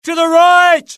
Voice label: alert, UK; mohaa; dialogue